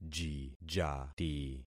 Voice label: "jijati", concat syllables; Affixing experiment

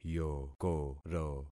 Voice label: "yokoro", concat syllables; Affixing experiment

